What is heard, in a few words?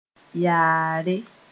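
"yaare", Mooré; by chance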